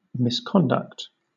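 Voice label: "misconduct", British English; pronunciations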